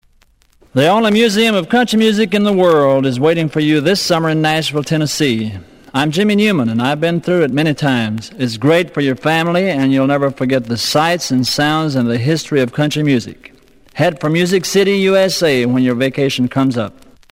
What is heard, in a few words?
Country Music Hall Of Fame Promo; Jimmie Newman